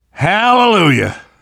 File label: safe spot ahead reaction, namvet, survivor voice; Left 4 Dead 2; left4dead2 dlc3